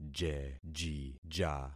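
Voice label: "jejija", concatenated syllables; Affixing experiment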